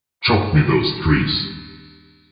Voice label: order tree cutting, minions; mod minions